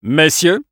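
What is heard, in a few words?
battle cry, French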